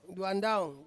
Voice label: "duandaung", Ansus; hair